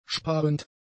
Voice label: "sparend", german; adjectives

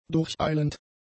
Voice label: "durcheilend", German; adjectives

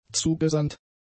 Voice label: "zugesandt", German; adjectives

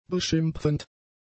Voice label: "beschimpfend", German; adjectives

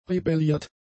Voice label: "rebelliert", German; adjectives

